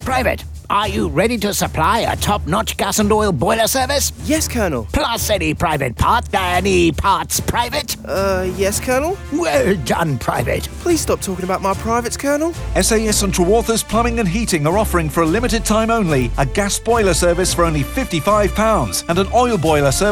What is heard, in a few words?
radio advert